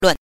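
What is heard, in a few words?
"lun", Mandarin Chinese pinyin, neutral tone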